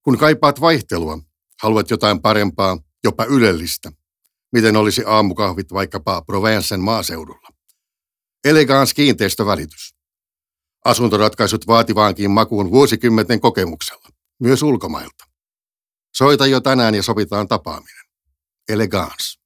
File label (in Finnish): radio